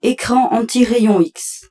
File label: French, Radshld